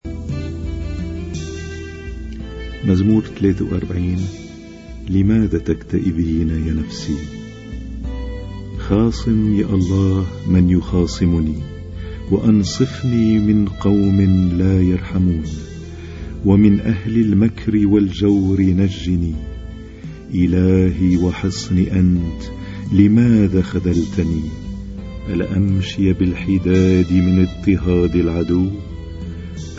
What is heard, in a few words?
Arabic Psalm Zaboor